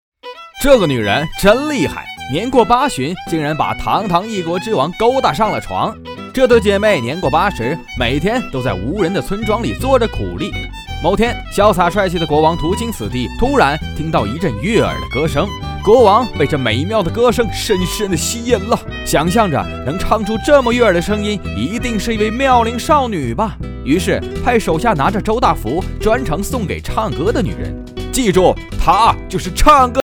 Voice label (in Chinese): 搞笑电影解说